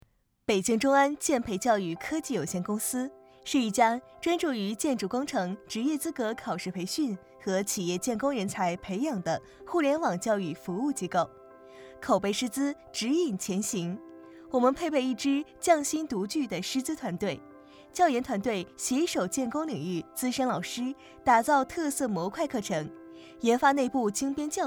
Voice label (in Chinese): 解说; 北京中安建培